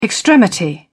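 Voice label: "extremity", British English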